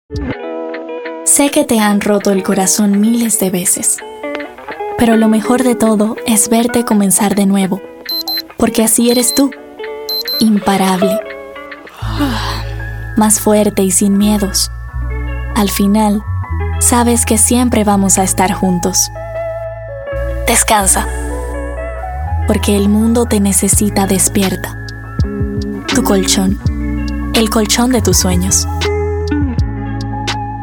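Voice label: Latin American Spanish Commercial; GoLocalise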